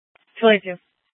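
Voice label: Scottsdale PD North Dispatch; Nth Dispatch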